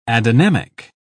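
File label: "adynamic", British English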